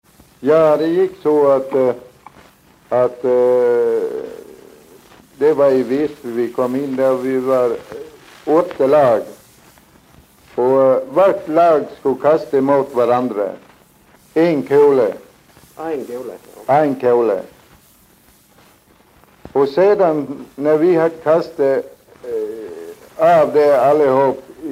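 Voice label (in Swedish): Gothem Gotland, dialekter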